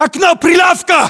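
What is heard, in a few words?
landmark near market stand, battlechatter, Russian; localized english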